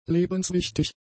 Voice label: "lebenswichtig", German; adjectives

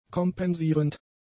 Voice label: "kompensierend", German; adjectives

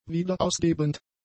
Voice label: "wiederausgebend", German; adjectives

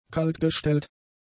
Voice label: "kaltgestellt", German; adjectives

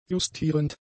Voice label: "justierend", german; adjectives

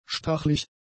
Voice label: "stachlig", German; adjectives

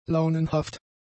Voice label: "launenhaft", German; adjectives

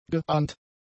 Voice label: "geahnt", German; adjectives

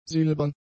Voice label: "silbern", German; adjectives

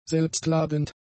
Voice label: "selbstladend", German; adjectives

